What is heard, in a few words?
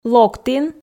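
"локтін", Komi, female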